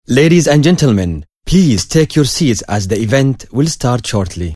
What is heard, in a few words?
Announce To The Audience, English